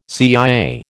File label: "CIA", American